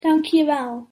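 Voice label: "dank je wel", Dutch; język niderlandzki podstawowe zwroty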